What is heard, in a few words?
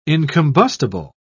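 "incombustible", British English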